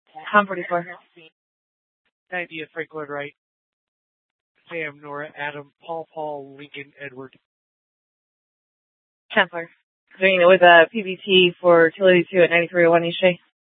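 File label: Scottsdale PD North Dispatch; Nth Dispatch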